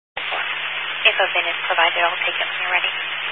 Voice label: Highway Patrol, Phoenix Metro West Area, scanner